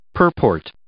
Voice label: "purport", English